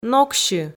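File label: "ноксьы", Komi, female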